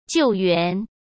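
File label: "救援", Mandarin Chinese; hsk; chinese-flashcards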